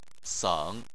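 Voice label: "seng" tone 3, Chinese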